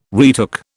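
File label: "retook", British English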